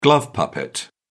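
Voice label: "glove puppet", English, British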